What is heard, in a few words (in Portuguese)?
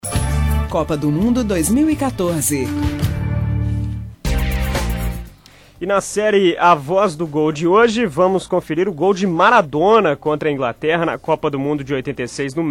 NARRACAO DO GOL; GOL DE MARADONA COPA 86